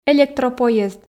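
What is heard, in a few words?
"электропоезд", Komi, female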